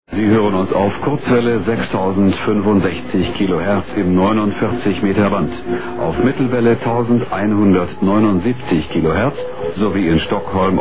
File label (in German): ansage